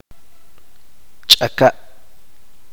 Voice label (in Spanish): "hacha", chuj